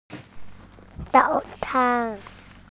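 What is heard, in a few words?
"breakfast", Mandarin Chinese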